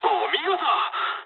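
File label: player killed enemy mood med, speech japanese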